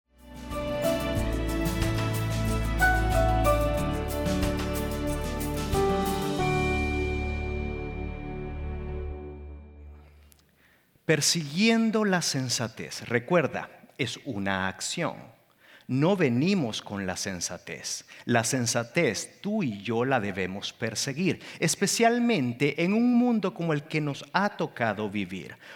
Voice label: SERMON AUDIO SPA